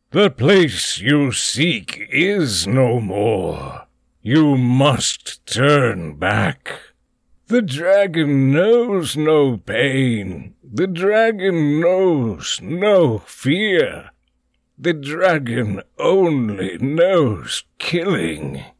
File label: British